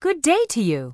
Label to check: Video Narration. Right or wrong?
Wrong